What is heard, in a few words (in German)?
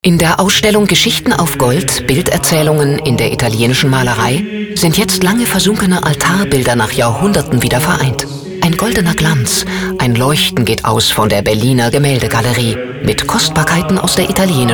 Entertainment Radiowerbung